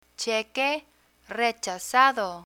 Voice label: "cheque rechazado", Spanish; banking vocabulary